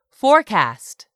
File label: "forecast", English; 3000 words